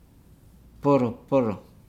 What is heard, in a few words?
"bolobolo basket", Nukuoro